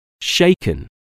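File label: "shaken", English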